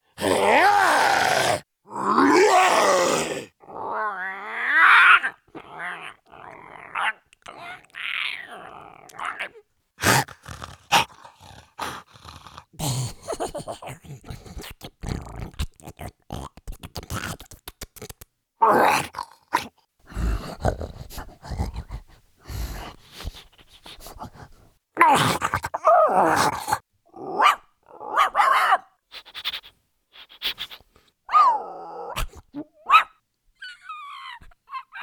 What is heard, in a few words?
animation men creature